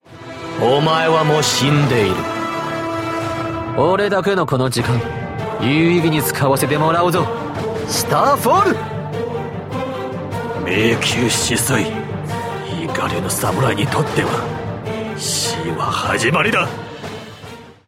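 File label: male Japanese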